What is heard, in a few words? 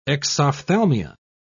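"exophthalmia", British English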